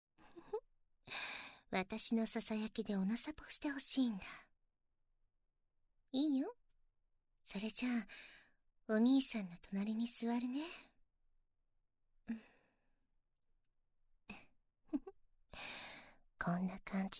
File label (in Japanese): 耳舐め囁き; オナニー